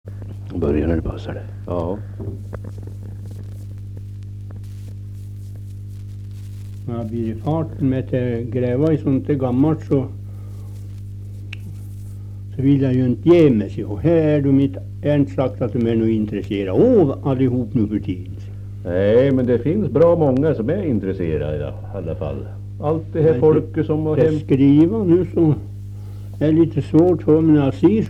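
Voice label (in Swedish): Österfärnebo Gästrikland, dialekter; Folkminnen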